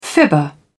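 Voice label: "fibber", English, British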